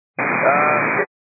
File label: 122 925MHz, scanner radio